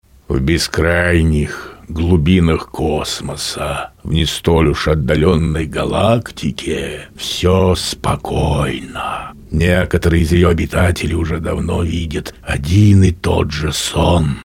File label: narr